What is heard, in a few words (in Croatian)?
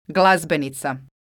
"glazbenica", croatian